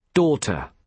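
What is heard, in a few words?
"daughter", British English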